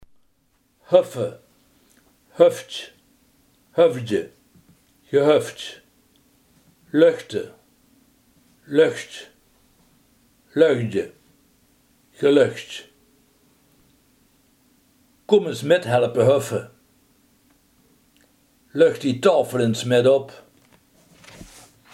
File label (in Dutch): heffen, Limburgse gesproken woordenboeken; heffen, höffe (höftj, höfdje, gehöftj), löchte (löchtj, löchdje, gelöchtj); Kóm ins mèt helpe höffe!